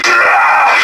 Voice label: hurt light; cloaker